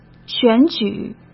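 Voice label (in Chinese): "选举", Mandarin Chinese; chinese flashcards; hsk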